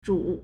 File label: "zhu3", Chinese